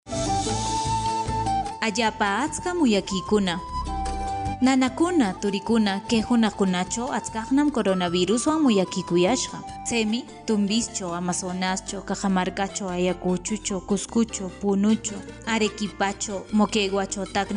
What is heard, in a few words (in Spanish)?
quechua ancash nuevas medidas, spot de radio; precauciones al salir de mi comunidad